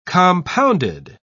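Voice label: "compounded", English, British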